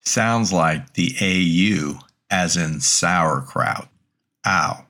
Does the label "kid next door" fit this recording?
No